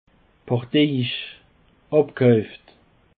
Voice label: "parteiisch", Alsace